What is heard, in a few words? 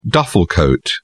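"duffel coat", British English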